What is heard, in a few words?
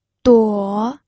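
"duo", pinyin, third tone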